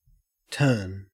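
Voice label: "turn", Australian English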